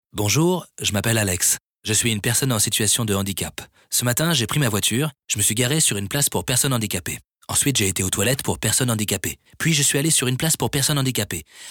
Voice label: Spot radio